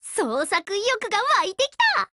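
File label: WIN Japanese, Voice; GF Alarm; F1 Mod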